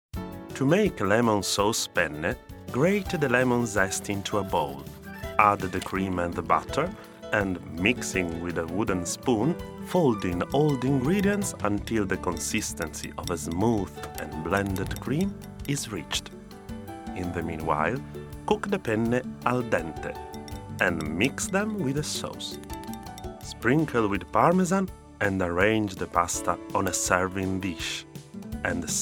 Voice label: corporate English